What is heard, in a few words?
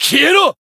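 attack, Japanese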